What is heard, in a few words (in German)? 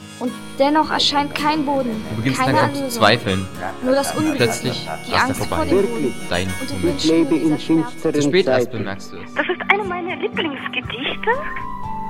radiopoesie aufforderungs jingle